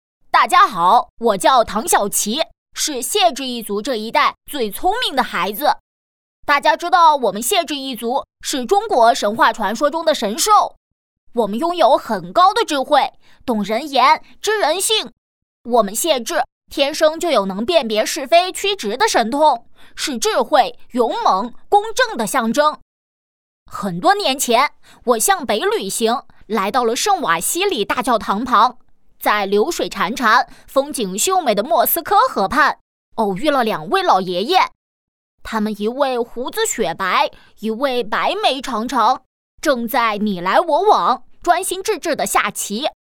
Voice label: 女 角色 IP形象吉祥物; 獬豸-世界女子国际象棋大师巅峰赛

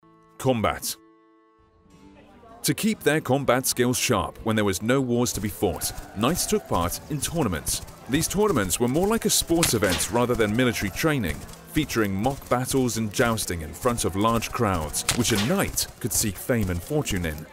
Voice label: 外籍英男 纪录片 轻快讲解

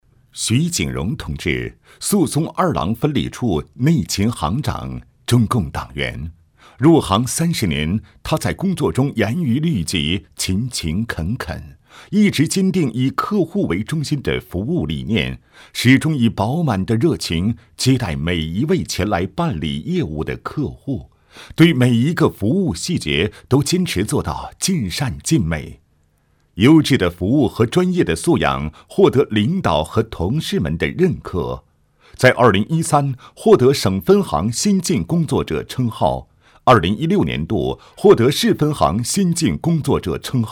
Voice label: 男-人物专题

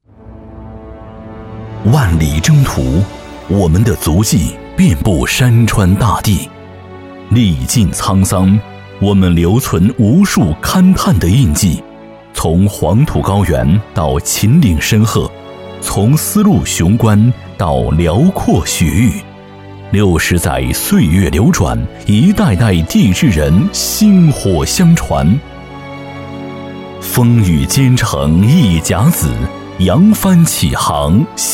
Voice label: 男 大气宣传片; 陕核集团

